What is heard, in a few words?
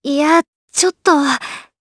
Vox Deny jp; Leo; kingsraid; voices heroes jp